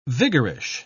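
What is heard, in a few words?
"vigorish", British English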